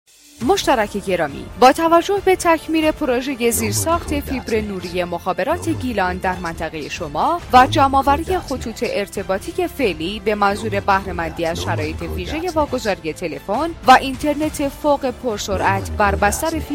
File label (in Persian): ضبط پیام تبلیغی برای بخش انتظار; مخابرات گیلان